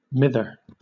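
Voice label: "mither", British English; pronunciations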